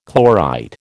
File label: "chlorite", American